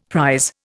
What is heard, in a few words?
"prise", Indian; Dictionary